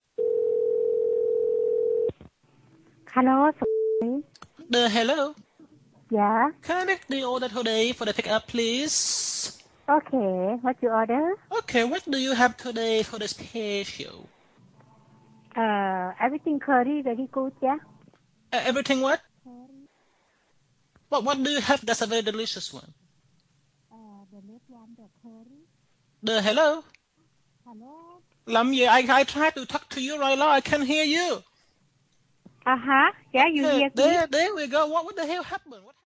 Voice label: confused Asian restaurant prank; ownage pranks